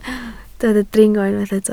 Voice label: "dringo", Welsh